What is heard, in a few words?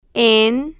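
"en", Spanish, female, slow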